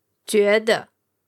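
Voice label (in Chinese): "juede", Mandarin Chinese, female; 觉得 (juéde) “to feel; to think”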